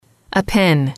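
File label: "pen", English, female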